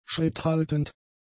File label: "schritthaltend", German; adjectives